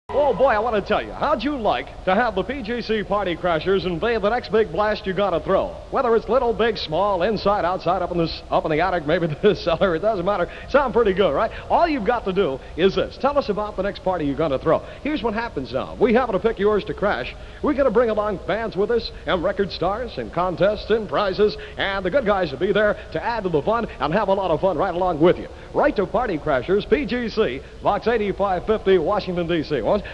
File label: promo; party crashers live